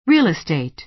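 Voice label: "real estate", American English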